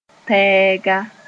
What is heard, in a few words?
"tɛɛga", Moore